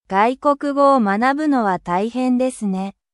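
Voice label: Japanese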